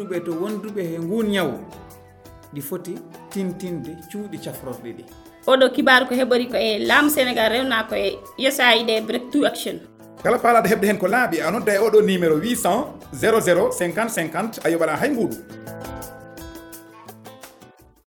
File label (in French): Spot Signe Ebola Pulaar